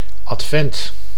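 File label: "advent", Dutch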